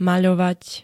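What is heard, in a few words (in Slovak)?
"maľovať", Slovak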